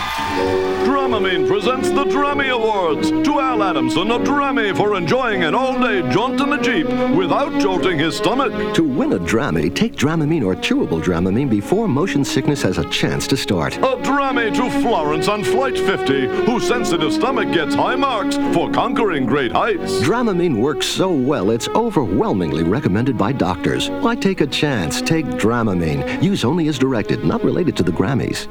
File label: commercial dramamine; Bluegrass